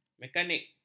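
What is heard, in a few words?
"mekanik", Malay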